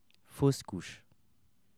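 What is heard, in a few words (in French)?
"fausse couche", French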